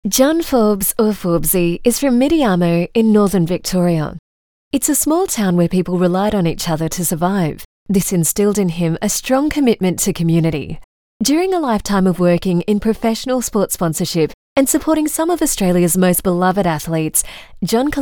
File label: Doco